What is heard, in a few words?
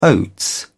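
"oats", English, British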